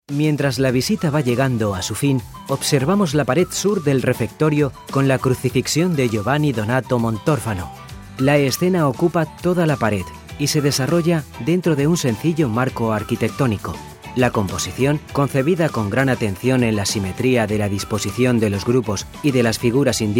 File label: spanish male audioguide